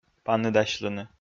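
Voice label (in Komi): "паныдасьлыны", Komi, male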